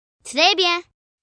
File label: Very Good FR, Response, Foreign, 1 girl, 9 yrs., French; Talking